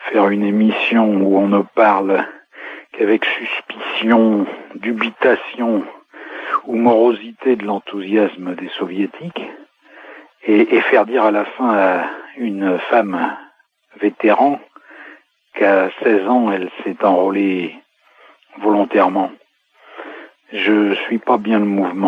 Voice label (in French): Bataille Stalingrad repondeur